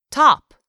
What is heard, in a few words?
"top", English; 3000 words